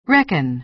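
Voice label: "reckon", American English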